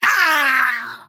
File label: pain death